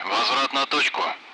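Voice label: Speech, Russian; Allied Flight; DCS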